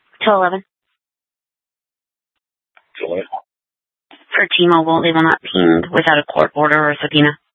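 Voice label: Scottsdale PD North Dispatch; Nth Dispatch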